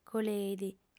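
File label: "coleit", female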